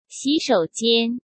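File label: "洗手间", Mandarin Chinese; chinese-flashcards; hsk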